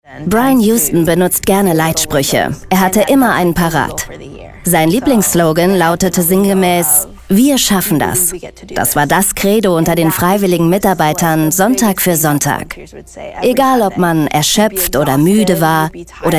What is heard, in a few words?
voiceover; hillsong